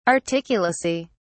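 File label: "articulacy", English, British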